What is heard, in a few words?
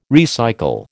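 "recycle", American English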